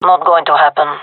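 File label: disagree, gendarmerie female; vo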